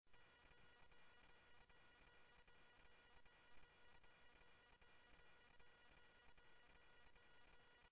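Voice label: police radio dispatch, Scottsdale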